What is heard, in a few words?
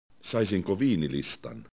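"viini", Finnish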